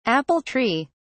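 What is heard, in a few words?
"apple tree", British English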